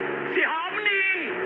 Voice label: aircraft destroyed, mood low, speech ge